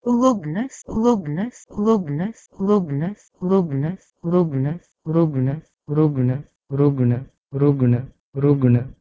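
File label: Proto-Indo-European lugnos to Sanskrit rugna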